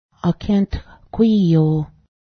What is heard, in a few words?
couch, Cayuga